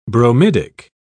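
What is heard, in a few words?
"bromidic", British English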